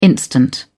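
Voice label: "instant", British English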